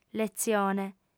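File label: "letzione", female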